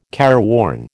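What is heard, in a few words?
"careworn", American English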